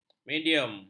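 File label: "medium", Malay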